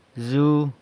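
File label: "zu", is standing, San Lucas Quiaviní Zapotec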